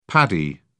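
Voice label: "paddy", British English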